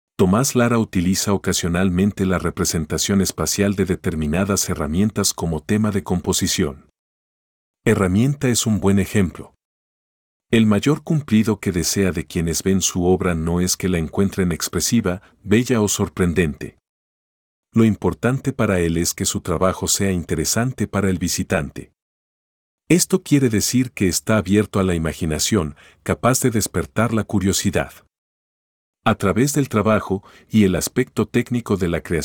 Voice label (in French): espagnol